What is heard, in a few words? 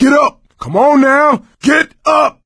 revive friend loud; source engine; left 4 dead 2